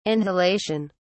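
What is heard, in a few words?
"anhelation", British English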